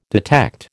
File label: "detect", American